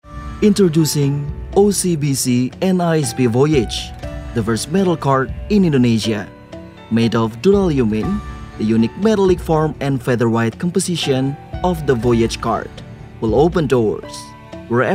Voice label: FORMAL ENG

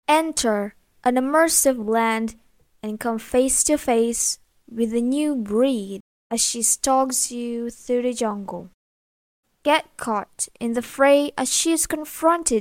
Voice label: Casual English American

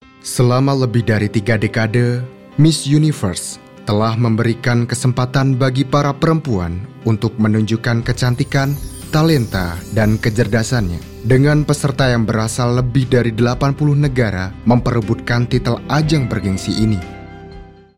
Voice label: Indonesia story-telling

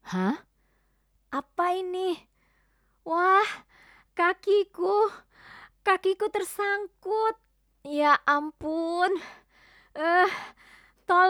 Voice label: INDONESIAN CHARACTER

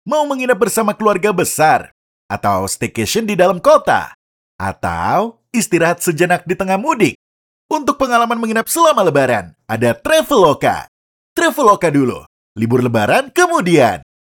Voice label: CASUAL INDONESIA, has a deep voice

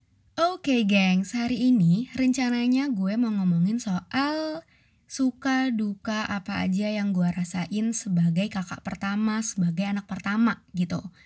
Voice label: BAHASA INDONESIA CASUAL

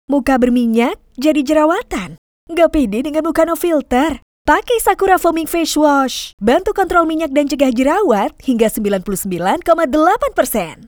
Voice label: INDONESIA CASUAL

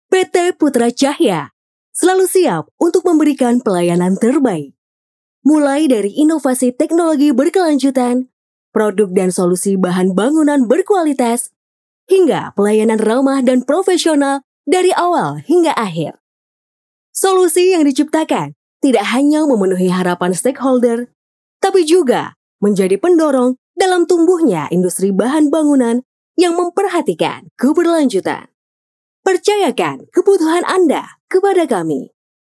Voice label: CORPORATE; INDONESIA

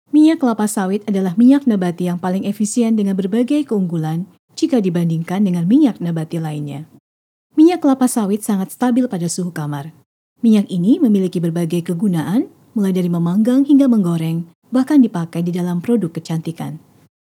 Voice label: FORMAL

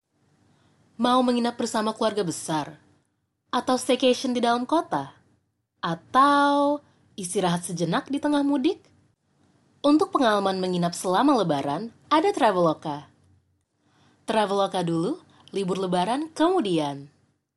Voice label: Indonesia casual

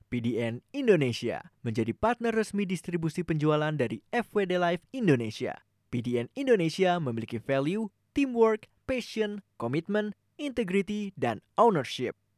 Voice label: INDONESIA FORMAL

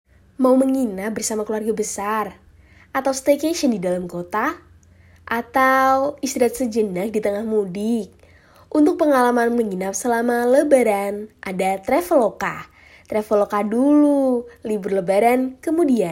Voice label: Indonesia casual

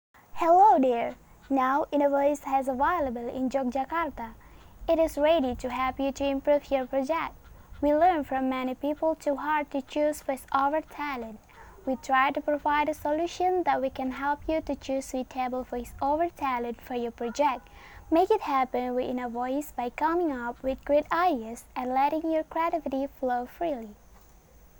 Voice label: ENGLISH CASUAL

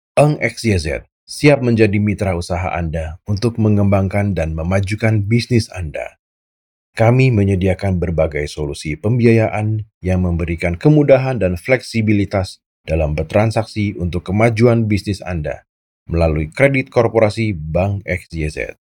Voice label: FORMAL INDONESIA

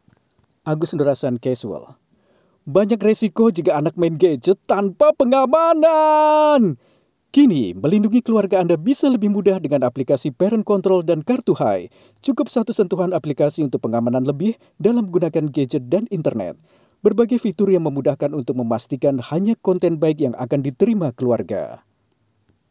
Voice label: INDONESIA CASUAL

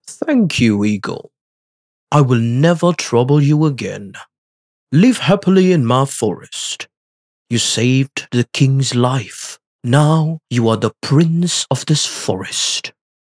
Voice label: Karakter English

